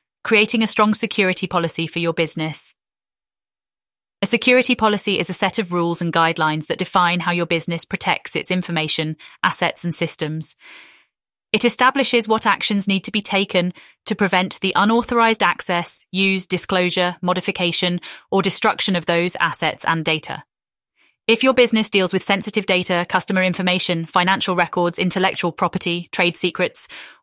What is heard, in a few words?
synthesized audio